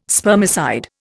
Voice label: "spermicide", Indian